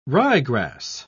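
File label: "ryegrass", British English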